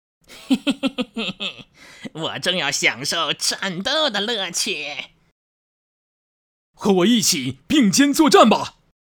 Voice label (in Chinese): 【角色】邪恶正义游戏录音男